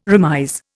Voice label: "remise", Indian

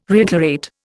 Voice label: "reiterate", Indian

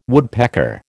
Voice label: "woodpecker", American

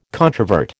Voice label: "controvert", American

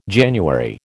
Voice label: "January", American

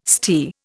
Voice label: "stere", Indian

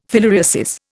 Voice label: "filariasis", Indian

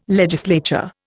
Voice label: "legislature", Indian

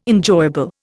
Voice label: "enjoyable", Indian

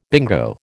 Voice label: "bingo", American

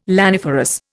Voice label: "laniferous", Indian

